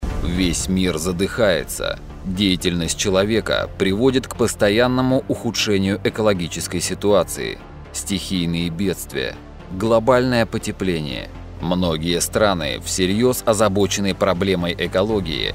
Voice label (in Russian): golos dokumentalniy